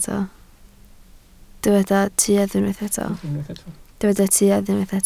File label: "tuedd", Welsh